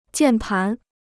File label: "键盘", Chinese